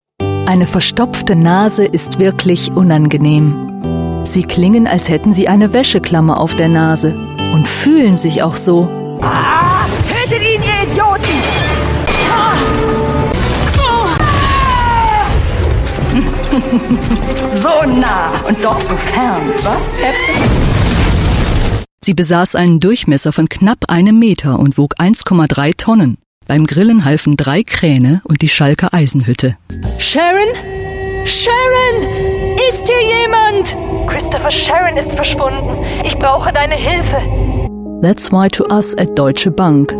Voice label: German Female Voice